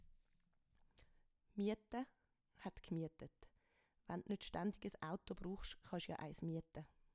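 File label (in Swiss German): "miete", Swiss German; Wortschatz Kapitel 1; Kapitel 1 Hauptwortschatz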